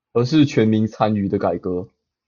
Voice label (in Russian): Голоса, Тайваньский